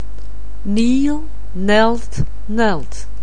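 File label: "kneel", English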